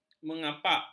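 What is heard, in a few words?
"mengapak", Malay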